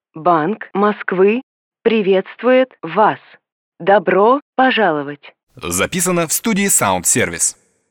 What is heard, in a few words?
Zapis IVR; Запись диктора; Bank Moskvy